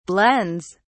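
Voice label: "blends", British English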